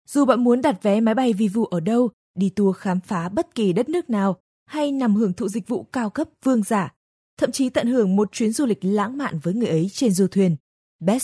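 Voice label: Vietnamese, female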